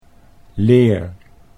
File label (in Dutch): "leer", Dutch